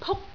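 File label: "puk1", Cantonese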